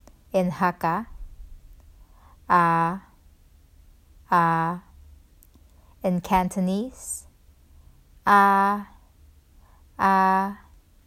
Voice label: "Ah 阿" Hakka Cantonese; The Duke Who Didn’t: Glossary & Pronunciation Guide